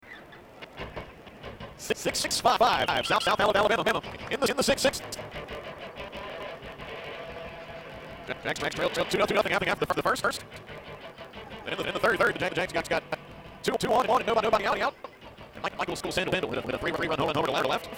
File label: Echo